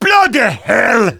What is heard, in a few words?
negative vocalization; Demoman